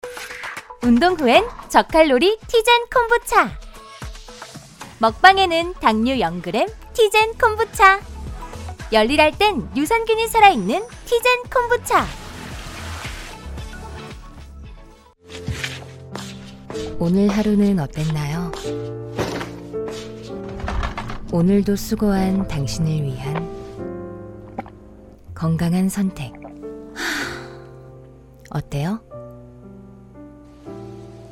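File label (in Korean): 남성 (Male) 나레이션 ARS 캐릭터; 광고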